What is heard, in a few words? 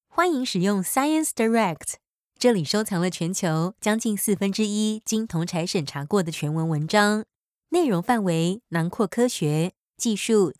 Taiwanese Mandarin F Narration